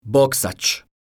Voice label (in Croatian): "boksac", croatian